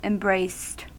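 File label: "embraced", US English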